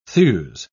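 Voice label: "thews", British English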